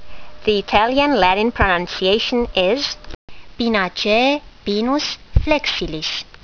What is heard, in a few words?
latin; Pinus flexilis